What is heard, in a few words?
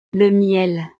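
"le miel", French; desayuno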